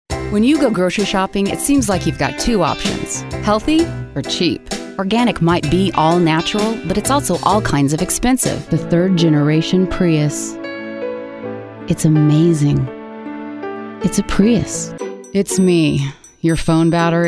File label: Commercial